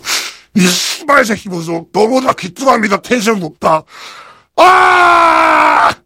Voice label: heavy jeers

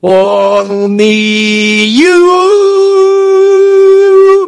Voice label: engineer battlecry

